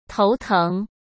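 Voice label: "头疼", Mandarin Chinese; hsk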